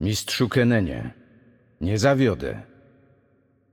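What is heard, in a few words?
Game Intro, Polish; bloom minigame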